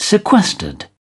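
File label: "sept", British English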